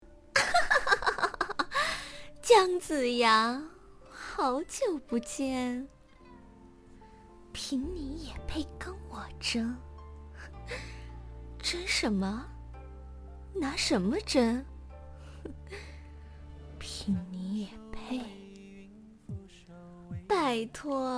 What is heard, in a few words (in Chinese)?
古风妖媚